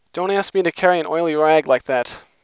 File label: Clean Speech Babble Noise